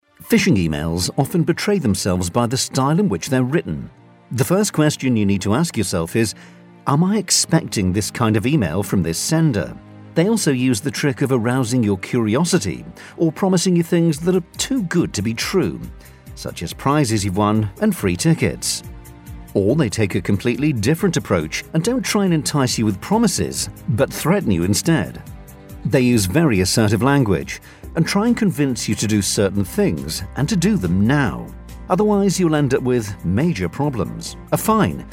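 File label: narration, British Believable Voice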